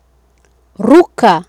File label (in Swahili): "ruka" Swahili